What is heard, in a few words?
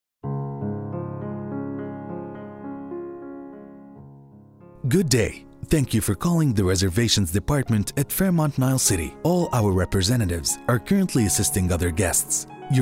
arabic ivr